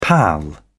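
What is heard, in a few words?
"pal up", British English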